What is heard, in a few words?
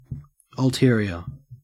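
"ulterior", Australian English; pronunciations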